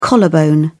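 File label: "collarbone", British English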